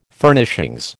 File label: "Furnishings", American